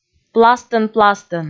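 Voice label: "пластӧн-пластӧн", Komi, female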